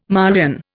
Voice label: "Marian", Indian

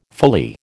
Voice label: "fully", American

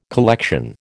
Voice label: "collection", American

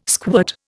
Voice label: "squirt", Indian

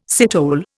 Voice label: "citole", Indian

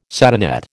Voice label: "satinette", American

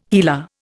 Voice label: "HeLa", Indian

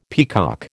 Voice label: "peacock", American

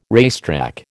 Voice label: "racetrack", American

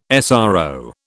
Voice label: "SRO", British

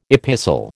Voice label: "epistle", American

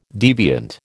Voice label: "deviant", American

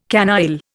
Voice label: "canaille", Indian

